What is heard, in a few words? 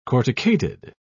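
"corticated", British English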